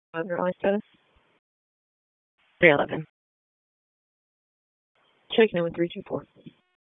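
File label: Police Dispatch; Raleigh Police Northeast District Disp; wake; p25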